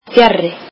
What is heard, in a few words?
icelandic far from